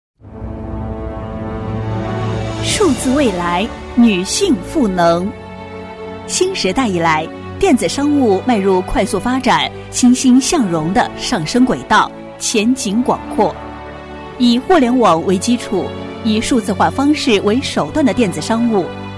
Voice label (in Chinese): 女 科技专题 大气沉稳; 数字未来; 洪亮激情; 沉稳大气